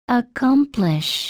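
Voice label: "accomplish", USA, female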